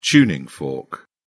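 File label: "tuning fork", British English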